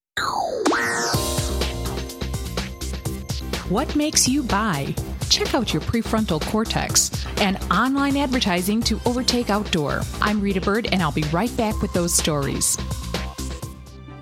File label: Narration